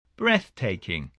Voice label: "breathtaking", spelling